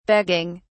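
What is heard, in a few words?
"begging", en, uk British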